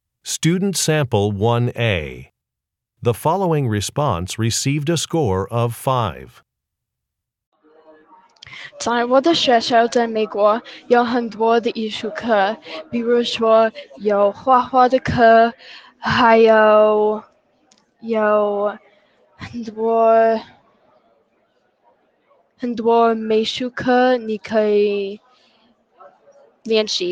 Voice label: chinese conversation; Interpersonal Speaking Samples; Conversation 1 Sample A